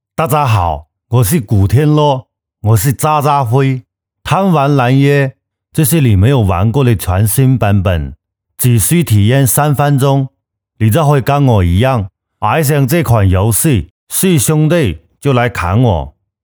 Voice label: 香港普通话

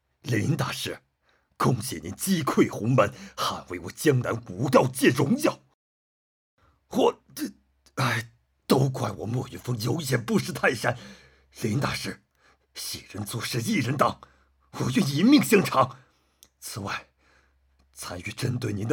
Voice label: 男中年

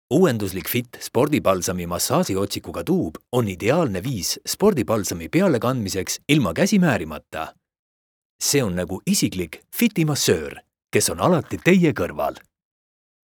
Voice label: 立陶宛语男

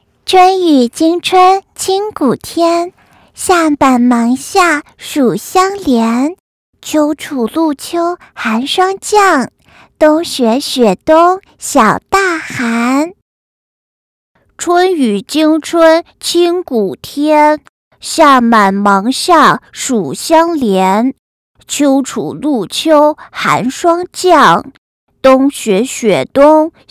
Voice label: 模仿女童男童

